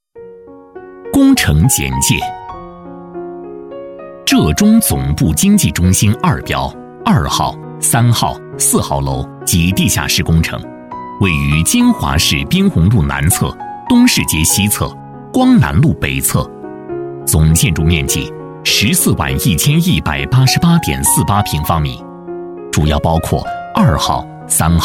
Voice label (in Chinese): 男 工程简介 建筑概况; 经济中心二标